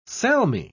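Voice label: "salmi", British English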